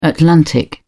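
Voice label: "atlantic", English, uk British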